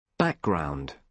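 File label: "background", British English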